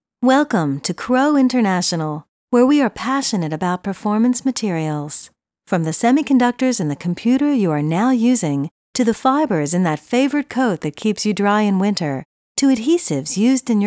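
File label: English learning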